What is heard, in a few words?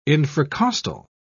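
"infracostal", British English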